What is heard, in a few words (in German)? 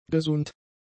"gesund", german; adjectives